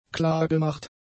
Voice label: "klargemacht", German; adjectives

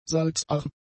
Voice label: "salzarm", german; adjectives

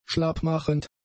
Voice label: "schlappmachend", German; adjectives

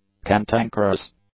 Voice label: "cantankerous", English; adjectives

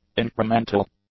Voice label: "incremental", English; adjectives

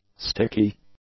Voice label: "sticky", English; adjectives

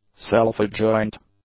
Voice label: "self-adjoint", English; adjectives

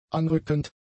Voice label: "anrückend", German; adjectives

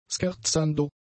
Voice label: "scherzando", German; adjectives

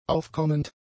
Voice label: "aufkommend", German; adjectives

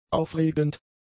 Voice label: "aufregend", German; adjectives

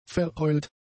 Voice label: "verheult", German; adjectives

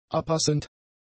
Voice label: "abpassend", German; adjectives

